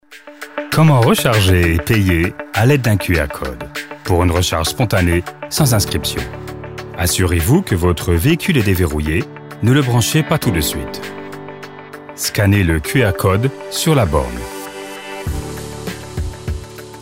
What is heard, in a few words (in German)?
Erklarfilm; IONITY